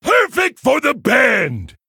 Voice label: Male Tuskarr Play